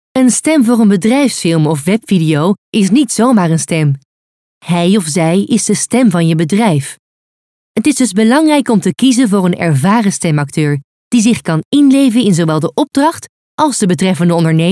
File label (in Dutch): bedrijfsfilm